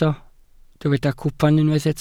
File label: "cwpan", Welsh